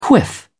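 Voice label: "quiff", British English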